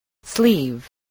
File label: "sleeve", voice, spelling